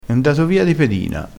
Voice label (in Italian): "di pedina", vocabolario dialettale